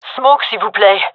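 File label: request smoke, gendarmerie female; vo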